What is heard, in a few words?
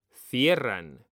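"cierran", Spanish, male; mekanta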